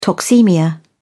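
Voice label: "toxaemia", British English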